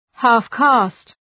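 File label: "half-caste", English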